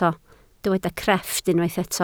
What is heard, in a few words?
"crefft", Welsh